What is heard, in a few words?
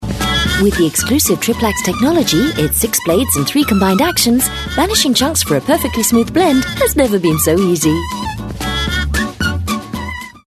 ad; MOULINEX